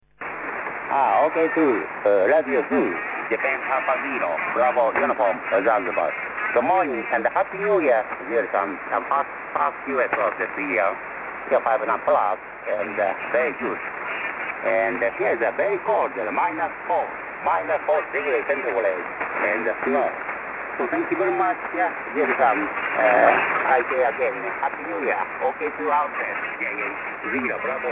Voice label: 80m SSB